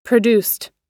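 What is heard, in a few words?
"produced", US English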